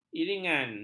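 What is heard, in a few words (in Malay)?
"iringan", Malay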